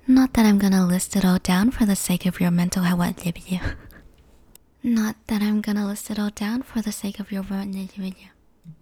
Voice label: soft yandere; tiny bloops